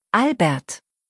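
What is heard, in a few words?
"Albert", German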